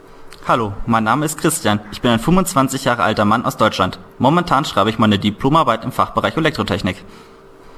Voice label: GERMAN